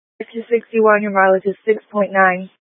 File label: Fire Dispatch; C- Chandler